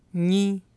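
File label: "nyI", syllable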